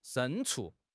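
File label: "牲畜", Mandarin Chinese; HSK6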